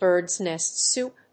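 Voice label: "birds-nest soup", English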